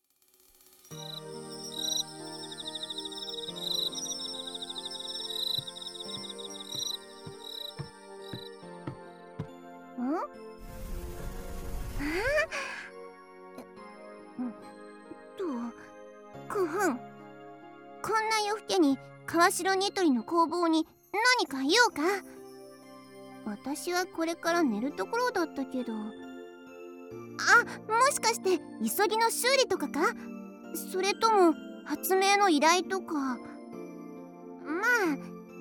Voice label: DOKIDOKI添い寝ボイス

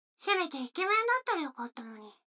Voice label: 女の子台詞

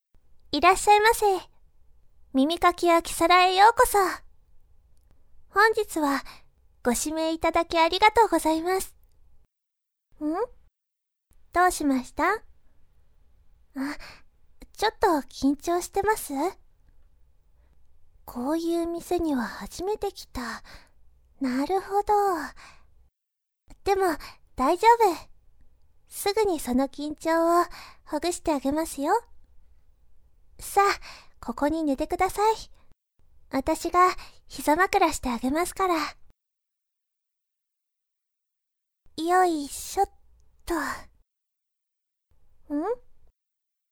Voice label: ボイスのみ; 君とまた、ここで; Project E.L.C; 耳かき; 癒やし

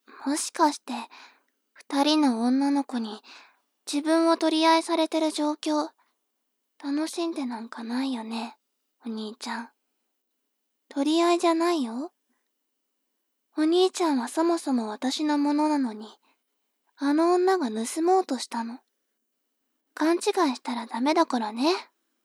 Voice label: 妹 台詞

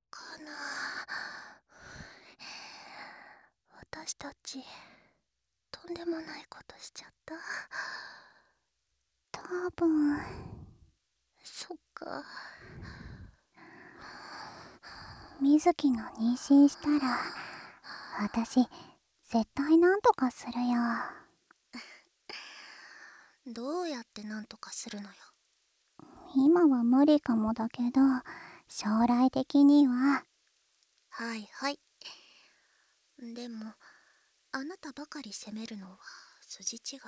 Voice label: ピロートーク; 大きなイチモツに愛をください。

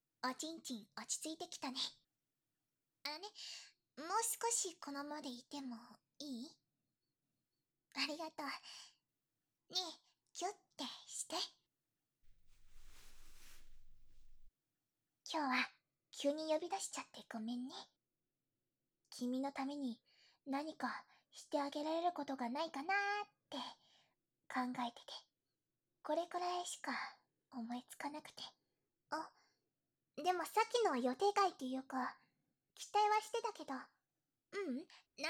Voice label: ピロートーク, ASMR

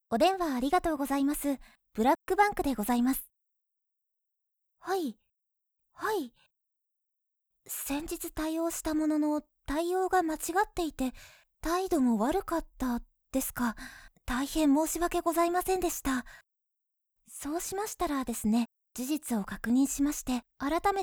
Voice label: オペレーターのお姉さん

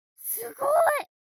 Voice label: mob 女子 台詞

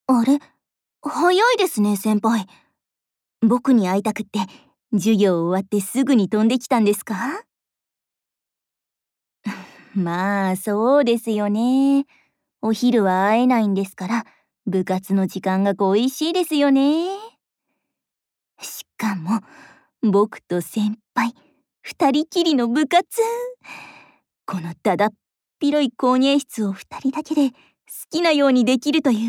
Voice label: LOVE Whisper こうはい, ASMR

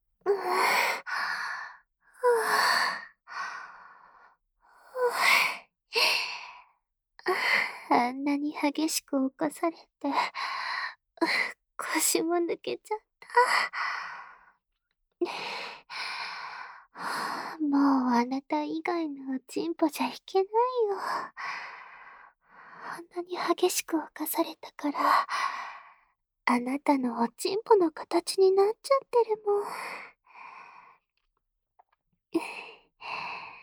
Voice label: ささやき・耳舐め, ASMR; エピローグ

